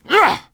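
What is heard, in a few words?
Twi male attack; XBOX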